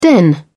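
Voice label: "den", British English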